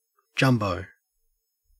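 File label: "jumbo", Australian English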